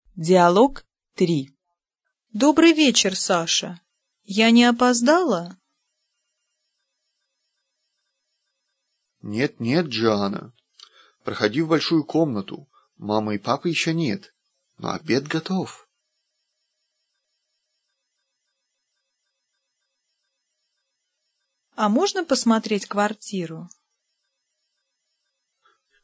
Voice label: dialog, russian